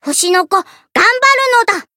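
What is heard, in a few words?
Voice chant, Japanese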